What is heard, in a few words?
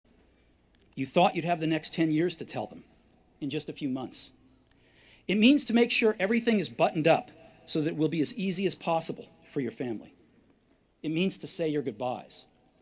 Commencement Address; Steve Jobs; 2005; Stanford